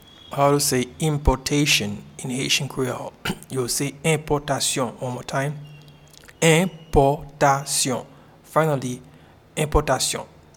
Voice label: Importation in Haitian Creole Enpotasyon